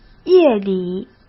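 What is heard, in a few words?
"夜里", Mandarin Chinese; hsk; chinese-flashcards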